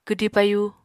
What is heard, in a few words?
"kwdi payu", apatani